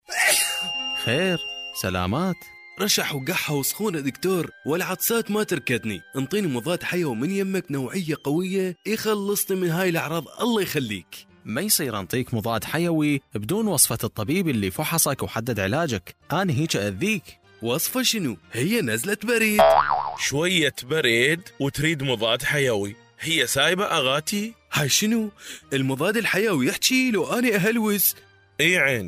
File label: AMR Radio Spot, Iraqi